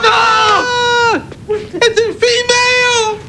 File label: Female